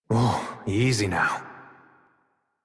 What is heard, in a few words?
Horse Calm Adult; Warframe; Duviri